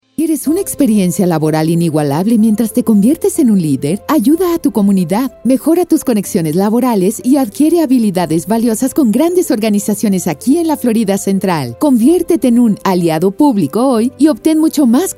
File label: Radio Spot Spanish